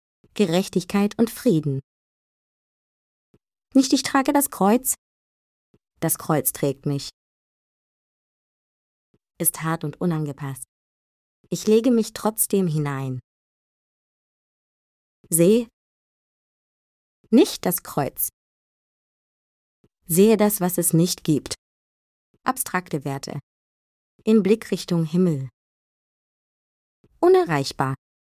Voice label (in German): Text Meditation